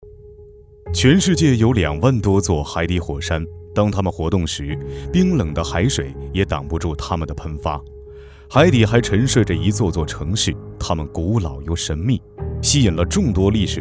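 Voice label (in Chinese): 男【宣传片】科普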